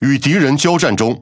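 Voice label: Speech Pilots Bomber Engage, Taiwan; World Of Warships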